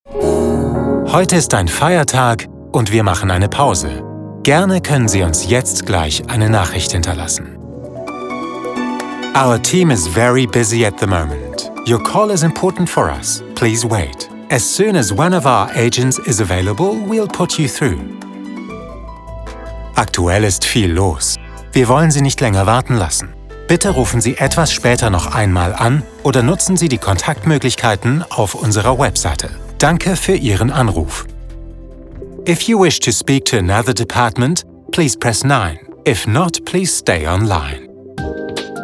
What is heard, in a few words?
on hold de en